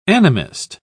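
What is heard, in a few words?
"animist", British English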